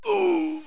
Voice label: Germ Ouch; Close Combat